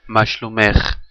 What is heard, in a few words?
how are you in hebrew female